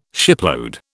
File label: "shipload", British